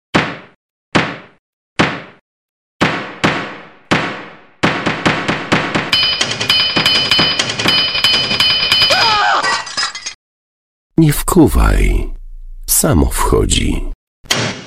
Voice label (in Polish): reklama; wkuwanie